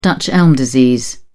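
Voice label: "dutch elm disease", British English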